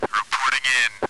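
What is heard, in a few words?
voice commands sounds; v igre counter-strike 1.6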